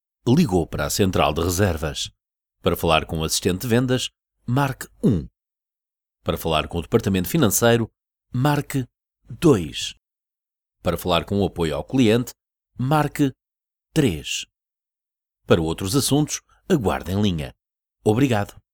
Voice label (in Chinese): 外籍葡萄牙语男 电话应答系统 IVR